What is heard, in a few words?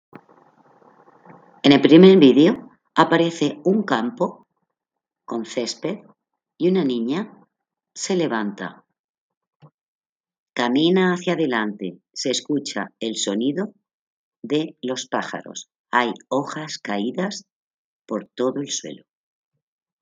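count to five in french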